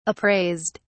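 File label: "appraised", British English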